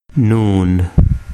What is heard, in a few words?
"noon", Urdu